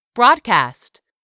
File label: "BROADCAST", English; 3000 words